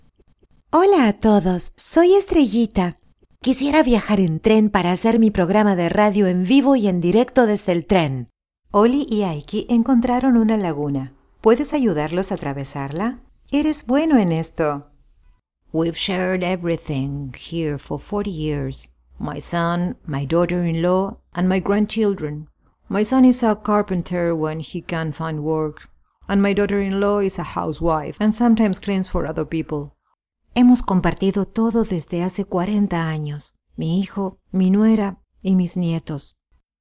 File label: Child Young and Old Characters Latam Spanish